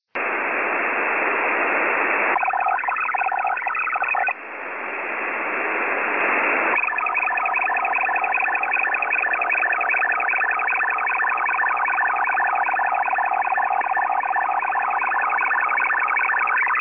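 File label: Polish military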